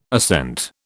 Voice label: "assent", British

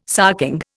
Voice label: "sarking", Indian

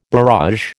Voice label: "barrage", American

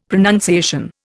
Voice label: "pronunciation", Indian; Dictionary

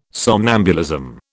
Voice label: "somnambulism", British

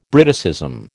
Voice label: "Briticism", American

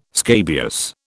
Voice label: "scabious", British

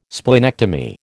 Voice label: "splenectomy", American

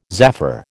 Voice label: "zaffre", American

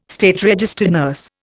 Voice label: "SRN", Indian

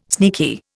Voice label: "sneaky", Indian

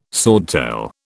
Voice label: "swordtail", British

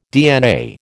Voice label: "DNA", American

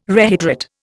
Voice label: "rehydrate", Indian

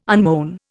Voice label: "unmown", Indian

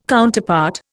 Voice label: "Counterpart", Indian

